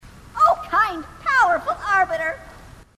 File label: grunt; h2dialogue; grunt crazy